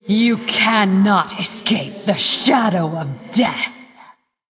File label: Female Blood Elf Play